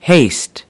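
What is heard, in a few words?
"haste" US English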